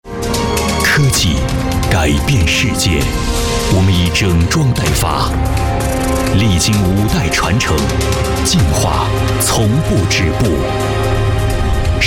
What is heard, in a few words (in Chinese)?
男 大气; 奥迪30周年A8